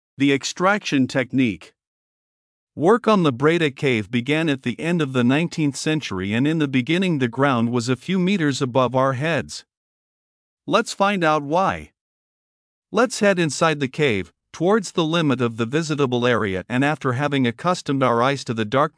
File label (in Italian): Audioguida ENG Tecnica di estrazione